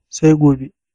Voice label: goodbye hausa